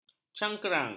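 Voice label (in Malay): "cangkerang", Malay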